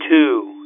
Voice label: "to" phone, phonemes

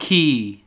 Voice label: "key", phonemes

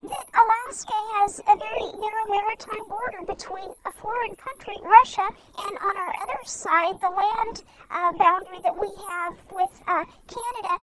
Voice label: to female, speech warping; palin

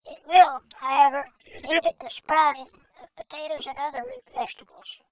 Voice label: male speech to child

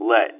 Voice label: "let" phone, phonemes

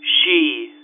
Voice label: "she" phone, phonemes

